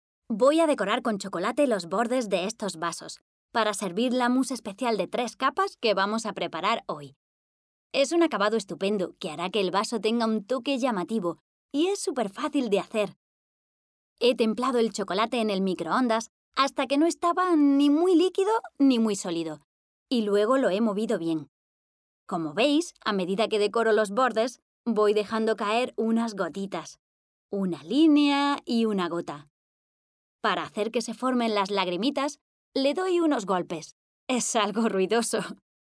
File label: spanish tv shows; actriz de doblaje española